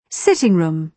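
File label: "sitting room", British English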